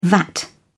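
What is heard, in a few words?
"vat", British English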